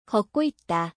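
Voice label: is walking, Korean; 걷다あるくWalk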